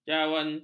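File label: "jawawut", Malay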